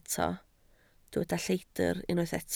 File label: "lleidr", Welsh